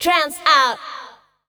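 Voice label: vocal female, Dance Vocals, spoken words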